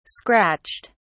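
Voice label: "scratched", British English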